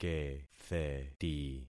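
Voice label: "ketheti", concatenated syllables; Affixing experiment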